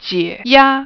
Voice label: "unzip", chinese